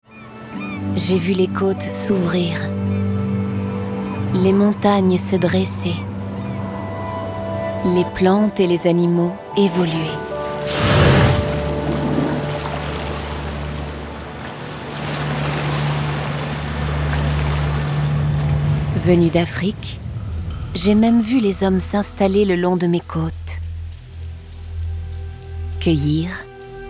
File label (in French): NARRATION FR Mediterranee; voix-off